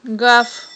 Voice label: "гаф", aghul

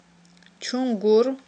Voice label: "чунгур", Aghul

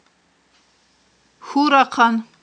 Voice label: "хьурахъан", Aghul

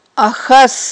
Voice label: "ахьас", aghul

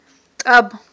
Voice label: "тIаб", aghul

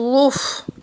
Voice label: "луф", aghul

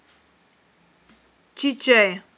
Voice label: "чIичIай", aghul

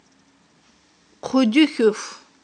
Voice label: "хъудуьхьуьф", aghul

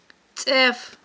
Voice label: "цIеф", Aghul

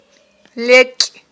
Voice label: "лекI", Aghul

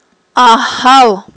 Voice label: "агьал", Aghul

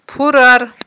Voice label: "пурар", aghul

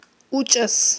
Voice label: "учIас", aghul

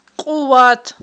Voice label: "кьуват", Aghul

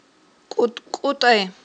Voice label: "кIутI-кIутIай", Aghul

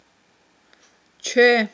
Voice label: "чве", aghul

